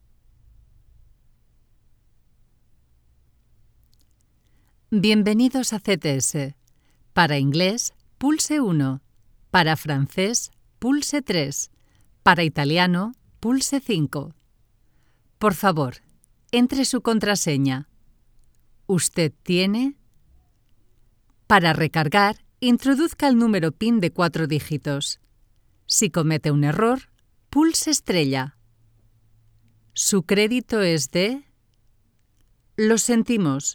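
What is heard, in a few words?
European Spanish, IVR; GoLocalise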